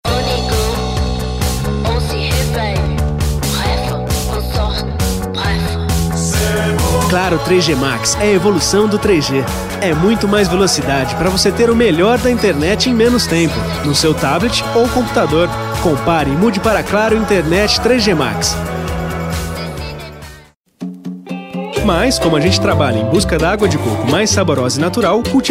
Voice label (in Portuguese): Jovem